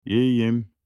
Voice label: im good in turkish